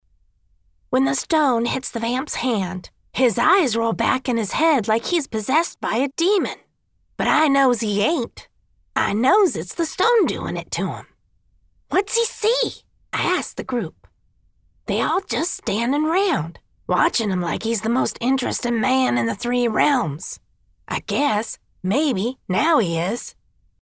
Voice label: Male Sprite